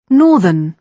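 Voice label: "northern", British English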